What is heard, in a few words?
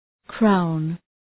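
"crown", English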